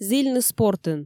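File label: "зільны спортын", Komi, female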